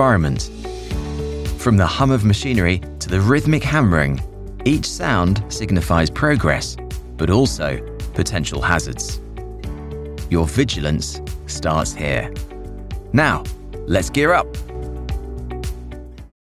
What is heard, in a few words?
Corporate eLearning Construction; voice-over